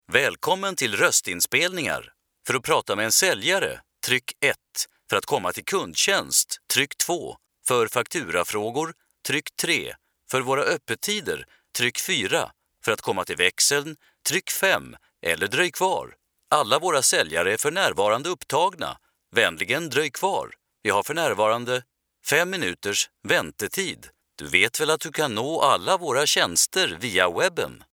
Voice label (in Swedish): SV IVR; IVR/Phone systems; Male; Swedish